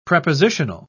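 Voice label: "prepositional", British English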